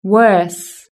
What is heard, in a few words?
"worse", british english